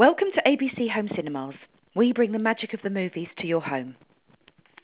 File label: Estuary